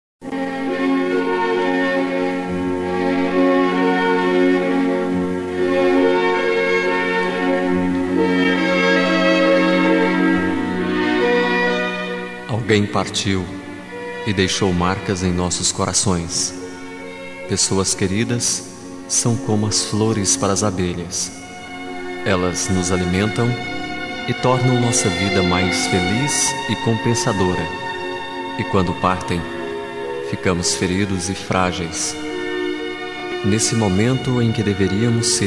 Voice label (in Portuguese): pesames masc, Telemensagem Pêsames – Voz Masculina